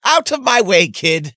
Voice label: guard male meet player